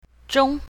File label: "zhong1", Mandarin; classroom